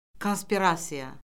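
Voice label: "konspirasiya", Azerbaijani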